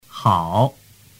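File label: "hao", Mandarin Chinese; tu moi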